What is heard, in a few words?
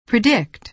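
"predict", American English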